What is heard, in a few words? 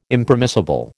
"Impermissible", American